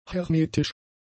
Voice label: "hermetisch", German; adjectives